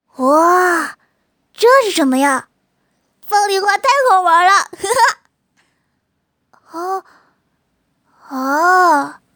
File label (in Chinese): 男童